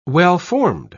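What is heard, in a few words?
"well-formed", British English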